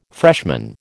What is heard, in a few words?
"freshman", American